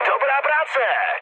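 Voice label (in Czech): Czech player killed enemy, mood medium; War Thunder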